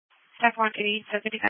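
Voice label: Police Patrol (Dispatch)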